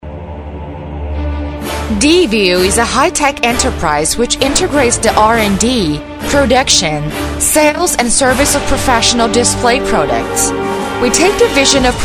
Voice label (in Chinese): 英女